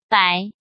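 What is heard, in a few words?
"Bái", Chinese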